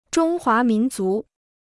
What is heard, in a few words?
"中华民族", Chinese